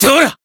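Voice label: Voice attack, Japanese; shigure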